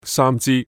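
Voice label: Cantonese "三支"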